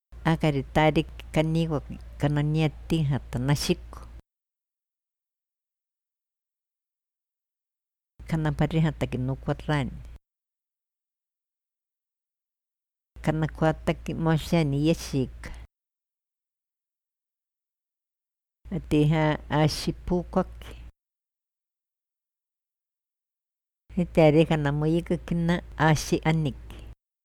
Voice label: iquito verbos